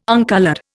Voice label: "uncoloured", Indian